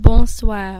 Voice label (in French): good evening, fr